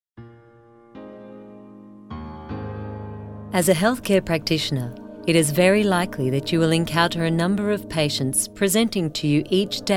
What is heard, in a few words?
Shoulder Pain Narration